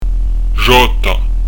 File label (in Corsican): "sgiotta", pronuncia; dizco grammatica